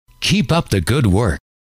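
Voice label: "keep up the good work", male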